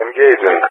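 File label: "engaging", English, GREECE; Allied Flight; DCS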